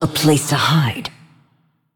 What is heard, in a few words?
Female Forsaken Play